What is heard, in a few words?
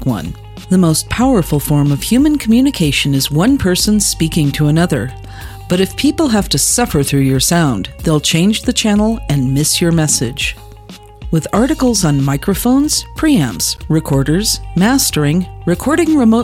Radio Audio Ad